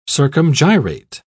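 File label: "circumgyrate", British English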